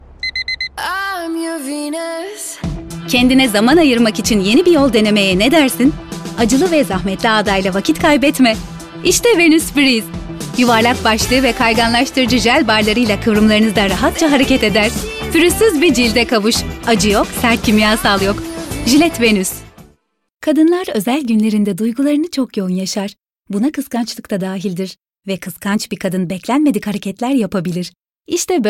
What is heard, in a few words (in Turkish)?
turkce seslendirme, kadin sesler